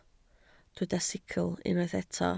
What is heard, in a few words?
"sicl", Welsh